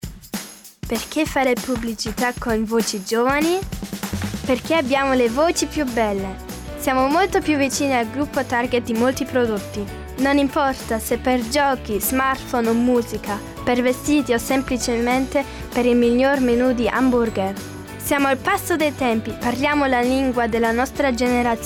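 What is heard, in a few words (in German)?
Werbung Italian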